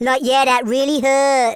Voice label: "ouch", Teenage Angst speech

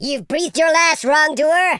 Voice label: traitor, speech, Super Hero

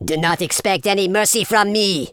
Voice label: revenge, Super Villain, speech

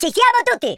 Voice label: yes sir, Italian

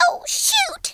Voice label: "grenade", Southern Belle; speech

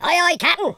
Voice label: orders, Pirate

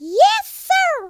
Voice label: yessir, Southern Belle; speech

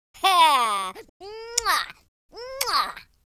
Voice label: happy, Drill Sergeant; speech

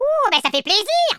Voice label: drop, speech, French

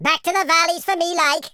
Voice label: byebye, Welsh; speech

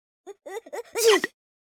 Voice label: idle, speech, Hippy

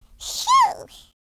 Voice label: relief; speech

